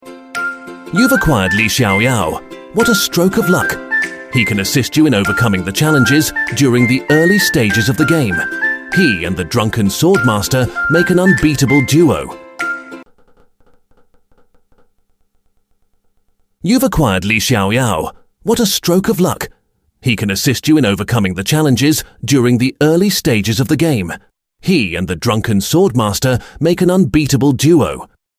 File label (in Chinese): 英式男声 欢快信息流广告